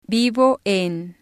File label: vivo en, Spanish